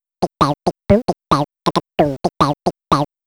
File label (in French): Souris qui parle